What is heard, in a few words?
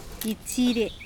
"itSire", andamanese; wash